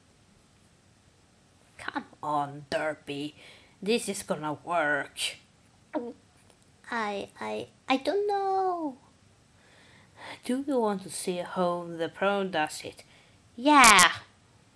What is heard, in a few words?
voice acting